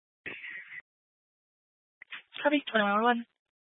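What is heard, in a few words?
Fire Dispatch, Scanner audio recording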